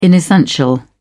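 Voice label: "inessential", British English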